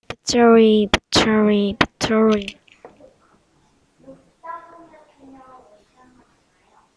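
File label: "battery", spoken word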